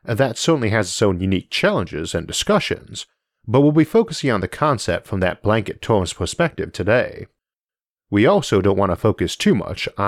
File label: life as a digital being narration only; isaac arthur